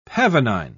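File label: "pavonine", British English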